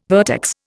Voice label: "vertex", Indian